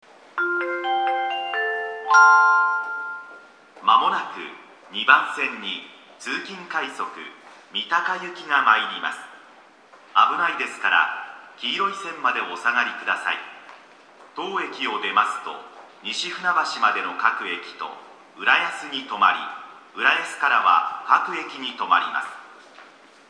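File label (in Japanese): 駅放送, 2番線 接近; toyo-katsutadai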